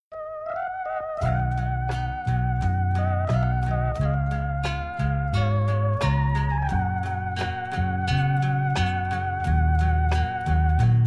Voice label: aniv de avo voz infantil

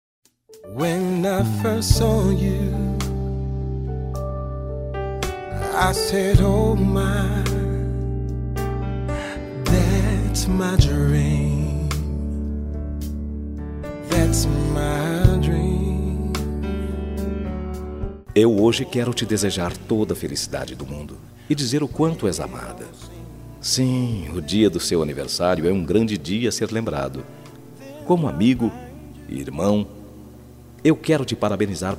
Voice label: aniversario irma voz masculina

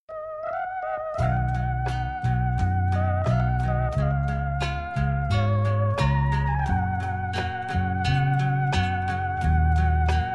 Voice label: aniv avo voz infantil

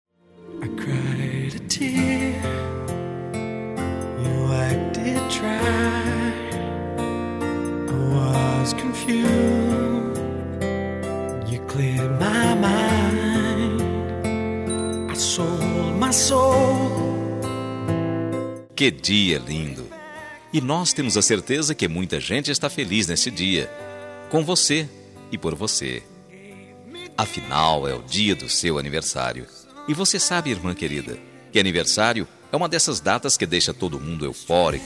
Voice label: aniversario irma plural voz masculina

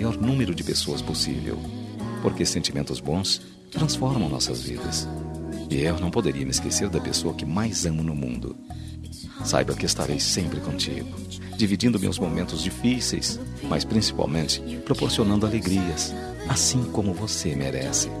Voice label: NATAL ROMANTICO M

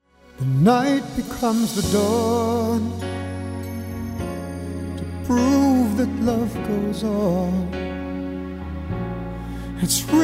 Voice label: aniversario irma voz masculina

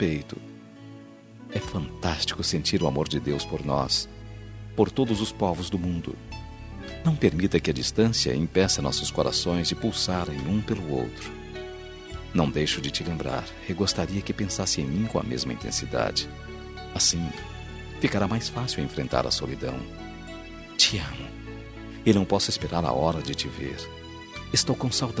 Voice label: NATAL ROMANTICO M; Noite Feliz

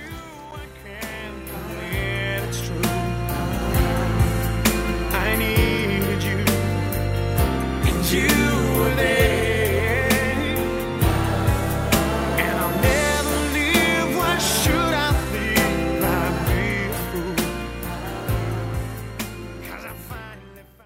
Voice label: aniv para avo fem; aniver avos

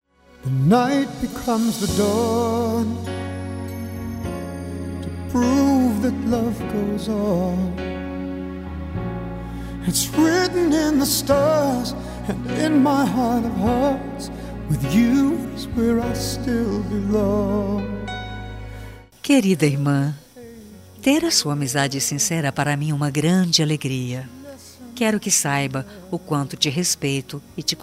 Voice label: aniversario irma evangelica voz feminina; aniver irmaos

